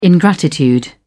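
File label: "ingratitude", British English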